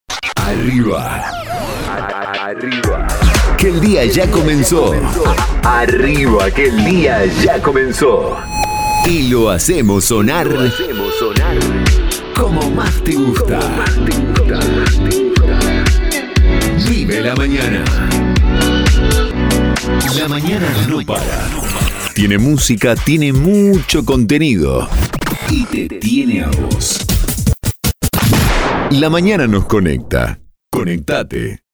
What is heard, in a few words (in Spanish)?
Arte Pack Manana, Spots genericos, Voz masculina; Para vestir LA MAÑANA; 10 spots personalizables